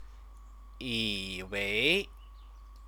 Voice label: "yiwei", Chinese